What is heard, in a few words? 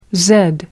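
"zed", British English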